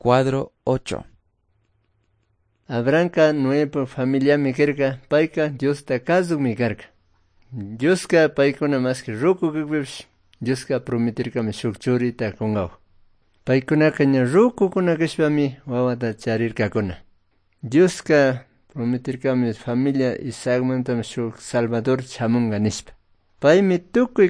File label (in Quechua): good news quichua cayambe